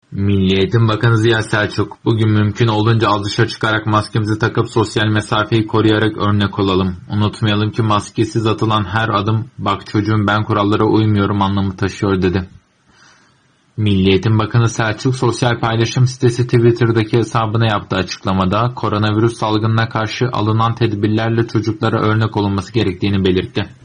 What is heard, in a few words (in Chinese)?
土耳其语男 外语 小语种 样音